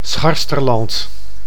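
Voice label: "Scharsterland", Dutch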